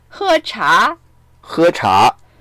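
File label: "he1cha2", Mandarin Chinese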